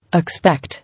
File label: "Expect", English